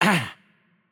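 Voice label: Turkish hurt light voice; bloom minigame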